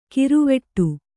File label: "ಗರ್ವಾಟ", kannada